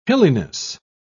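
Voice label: "hilliness", British English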